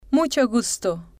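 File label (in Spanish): mucho gusto, Spanish, female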